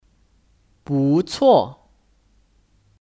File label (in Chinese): "不错", Búcuò